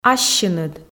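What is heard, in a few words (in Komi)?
"ассьыныд", Komi, female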